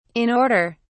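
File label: "in order", British English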